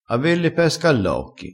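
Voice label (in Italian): "ave le pesche", vocabolario dialettale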